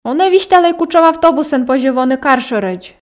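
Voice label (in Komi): он-ӧ висьталӧй кутшӧм автобусӧн позьӧ воны кар шӧрӧдз, Komi, female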